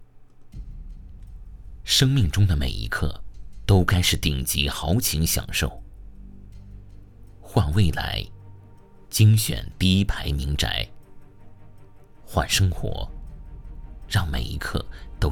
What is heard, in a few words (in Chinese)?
房产, 广告; 名宅房产